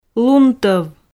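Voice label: "лун тов", Komi, female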